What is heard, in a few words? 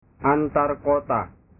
"antarkota", bahasa